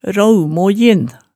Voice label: "raumojin", Hemsedal

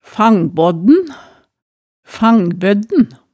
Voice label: "fangbaadn, fangbødn", hemsedalsmaalet

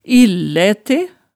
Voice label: "illætæ", hemsedalsmaalet

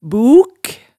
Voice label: "buuk", hemsedalsmaalet tale

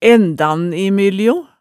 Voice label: "ændan i myljo", hemsedalsmaalet, tale

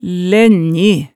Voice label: "lænji", hemsedalsmaalet tale

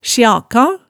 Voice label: "sjaka", hemsedalsmaalet

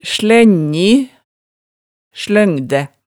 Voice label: "slenji", hemsedalsmaalet

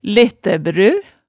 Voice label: "lettæbrø", hemsedalsmaalet tale

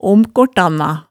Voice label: "omkortanna", hemsedalsmaalet, tale

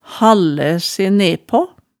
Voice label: "hallæ se nepaa", hemsedalsmaalet

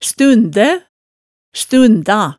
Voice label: "stundæ", hemsedalsmaalet

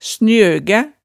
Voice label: "snjøgæ", hemsedalsmaalet

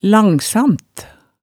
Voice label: "langsam", hemsedalsmaalet tale

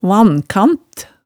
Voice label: "vankant", hemsedalsmaalet tale

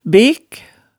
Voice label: "bek", hemsedals maalet tale

